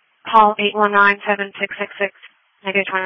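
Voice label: Police Patrol (Dispatch)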